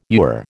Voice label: "ewer", American